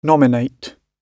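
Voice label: "nominate", British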